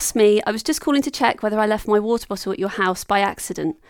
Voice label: Voice Message